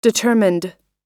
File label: "determined", us